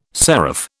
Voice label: "seraph", British